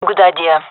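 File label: agree, gendarmerie female; vo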